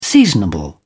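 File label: "seasonable", British English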